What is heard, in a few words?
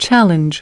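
"Challenge", English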